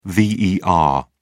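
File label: "ver", British English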